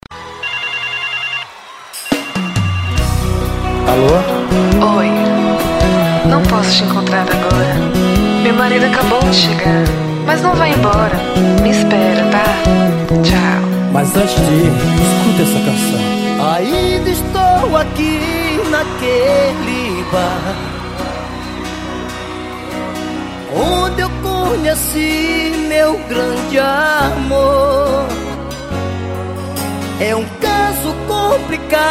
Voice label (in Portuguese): locutor